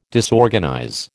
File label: "disorganize", American